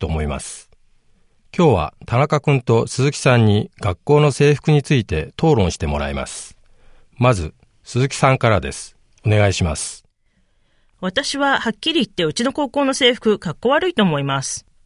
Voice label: japanese school debate